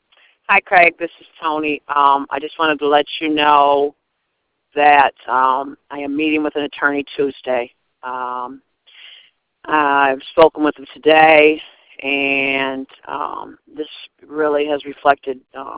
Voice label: voicemail